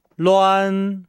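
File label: "luan", Chinese; Nihao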